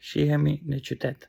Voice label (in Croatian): albanski; POP UP Zagreb